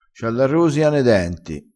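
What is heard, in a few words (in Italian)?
"rosia", vocabolario dialettale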